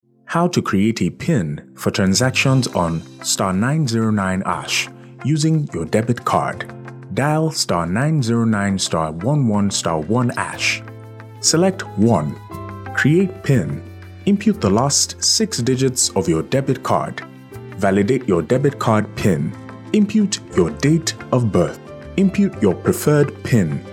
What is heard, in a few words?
Nigerian voice, telephone